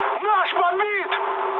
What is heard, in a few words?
aircraft destroyed, mood med, speech ru; War Thunder